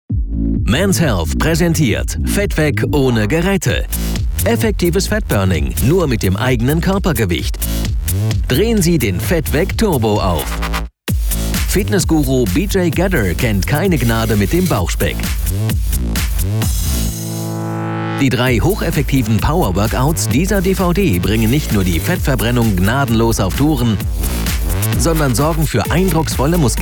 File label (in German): sprecher produktfilm; mens health dvd